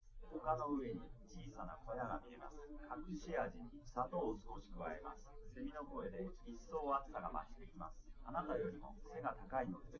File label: male, live rec; SiSEC11; underdetermined